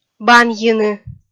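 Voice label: "банйыны", Komi, female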